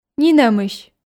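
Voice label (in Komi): "нинӧмысь", Komi, female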